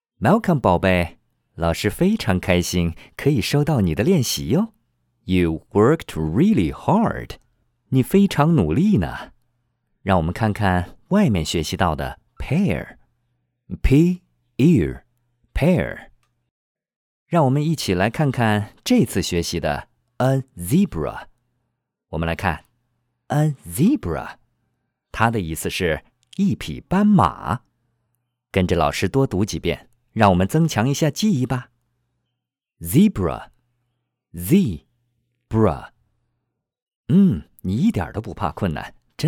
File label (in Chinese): 教辅双语男声; 教辅双语男声龙都之音